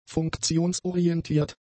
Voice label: "funktionsorientiert", German; adjectives

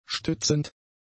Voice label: "stützend", German; adjectives

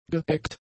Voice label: "geeggt", German; adjectives

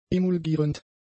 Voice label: "emulgierend", german; adjectives

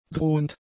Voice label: "drohend", German; adjectives

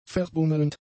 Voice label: "verbummelnd", German; adjectives

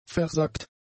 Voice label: "versackt", german; adjectives

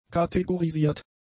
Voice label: "kategorisiert", German; adjectives

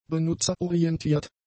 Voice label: "benutzerorientiert", German; adjectives

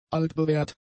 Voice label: "altbewährt", German; adjectives

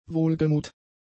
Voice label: "wohlgemut", German; adjectives

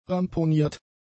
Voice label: "ramponiert", German; adjectives

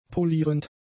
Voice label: "polierend", German; adjectives

